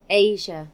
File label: "asia", en GB; gcompris